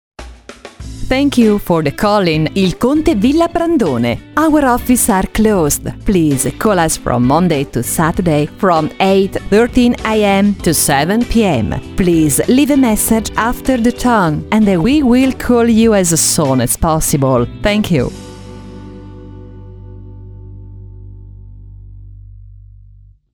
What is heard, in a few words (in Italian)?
segreteria INGL; villa prandone